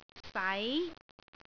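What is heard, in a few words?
"sai2", Cantonese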